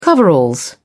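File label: "coveralls", British English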